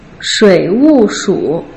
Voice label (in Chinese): shu3 wu4 shu3, Putonghua